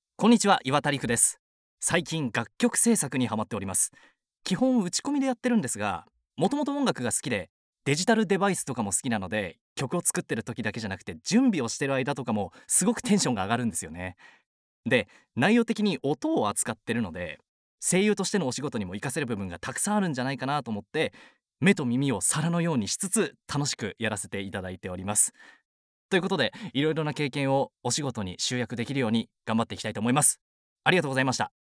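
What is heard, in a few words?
free talk, Japanese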